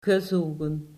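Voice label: "gezogen", Dutch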